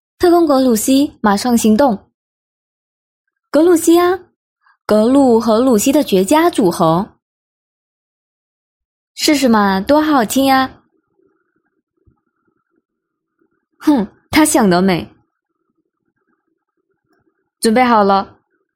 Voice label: Mandarin, China; Despicable Me 3